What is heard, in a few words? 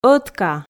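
"ӧтка" Komi, female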